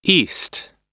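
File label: "east", English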